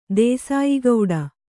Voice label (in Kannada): "ದೇಸಾಯಿಗೌಡ", Kannada